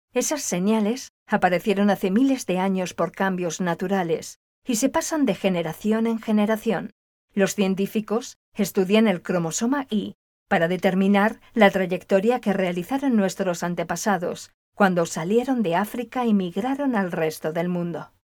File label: locutora spanish voiceover